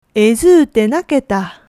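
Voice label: "ezui", Japanese dialect, woman; hogen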